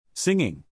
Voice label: "singing", English, neural; animal sounds in english; Birds; Stephen

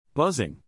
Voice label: "buzzing", insects, neural; animal sounds in english; mosquitoes, flies and bees

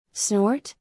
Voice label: "snort", English; звуки животных на английском; лошади; Salli

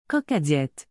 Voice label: "Coca diète", Québécois French

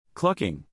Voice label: "clucking", English, neural; animal sounds in english; Female chickens; hens; Stephen

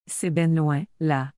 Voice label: "C’est ben loin, là.", Québécois French; It's really far.

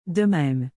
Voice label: "de même", Québécois French

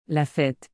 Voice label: "la fête", Québécois French; la fête l'anniversaire birthday

